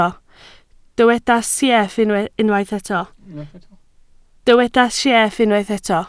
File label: "sieff", Welsh